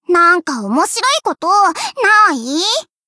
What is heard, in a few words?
chat; svencoop; voice commands; hl Nozomi